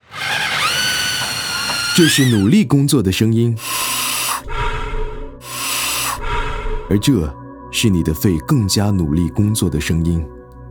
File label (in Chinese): RADIO MANDARIN; Mandarin Radio B